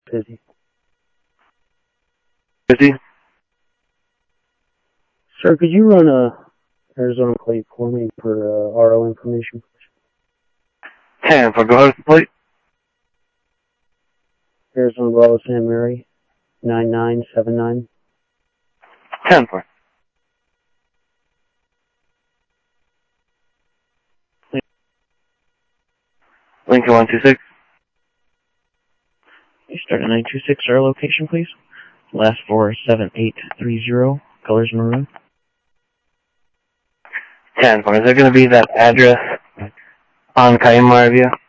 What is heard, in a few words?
scanner audio, Sheriff